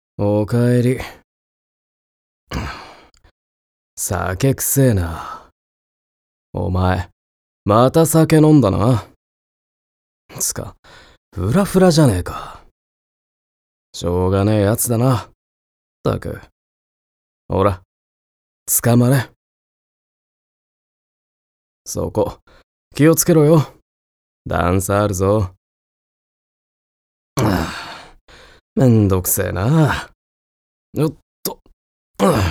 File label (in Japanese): voice 低音